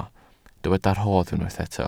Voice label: "rhodd", welsh

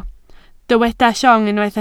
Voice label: "llong", Welsh

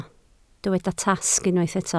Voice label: "tasg", Welsh

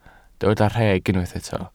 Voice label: "rheg", Welsh

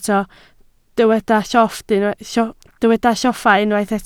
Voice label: "lloffa", Welsh

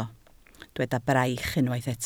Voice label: "braich", Welsh

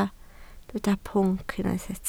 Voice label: "pwnc", Welsh

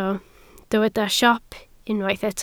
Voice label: "siop", Welsh

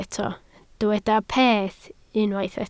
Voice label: "peth", Welsh

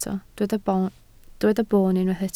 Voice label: "boon", Welsh

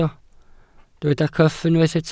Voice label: "cyff", Welsh

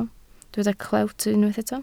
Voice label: "clewt", Welsh

